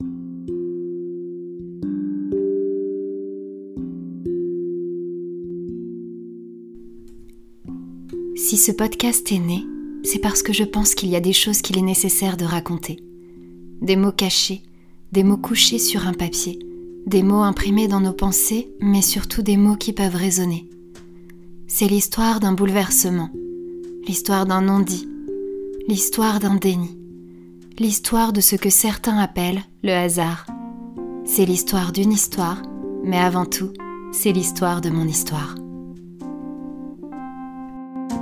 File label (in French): Bande annonce